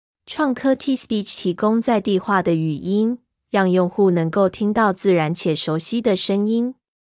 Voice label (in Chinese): 中文台灣